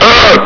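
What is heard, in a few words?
"at!", male; hl2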